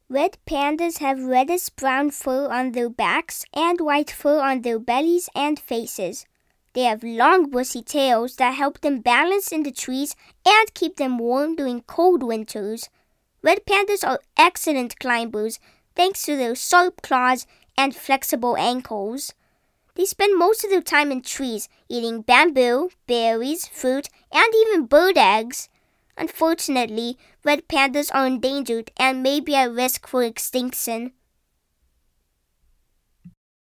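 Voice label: narration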